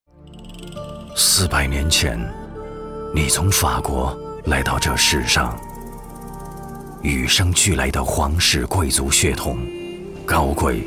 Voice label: 男 品质广告 珠宝广告 神秘风格

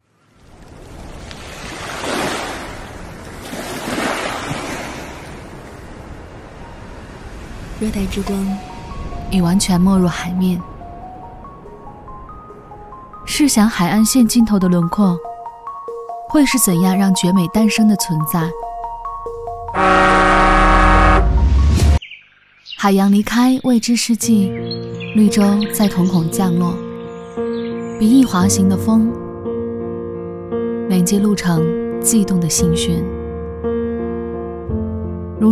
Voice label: 女, 旁白, 微电影, 旅拍, 婚礼

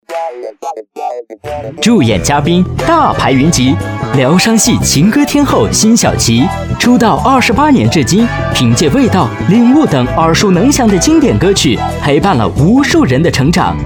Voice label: 栏目包装 音乐节 青春活泼, 国语男声配音

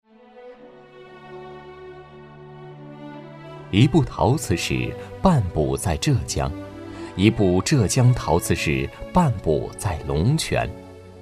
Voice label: 男 文化记忆之中国瓷器

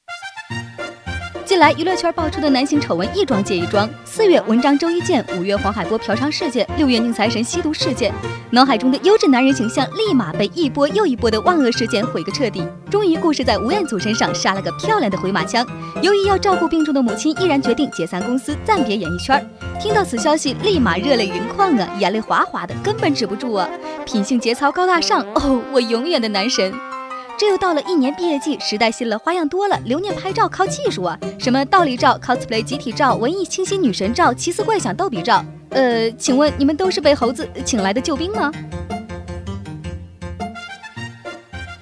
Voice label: 女 吐槽; 飞碟说风格

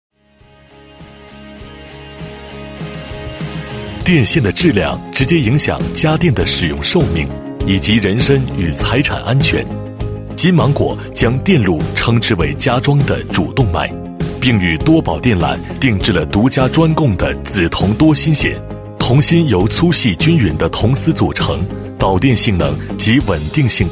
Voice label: 男 企业 宣传介绍; 装饰公司宣传介绍; 金芒果

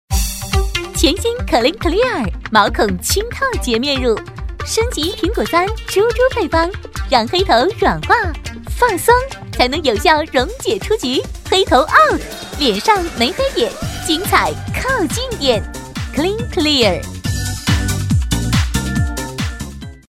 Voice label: 女 广告 化妆品 风格 时尚 活力; 国语女声配音

